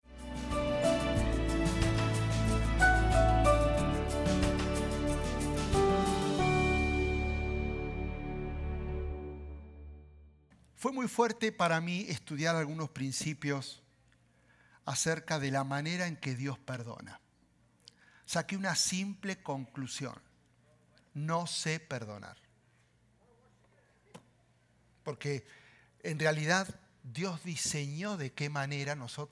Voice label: SPAN SERMON